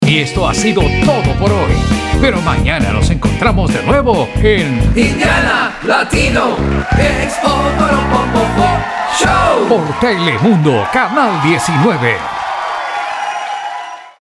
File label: LATINO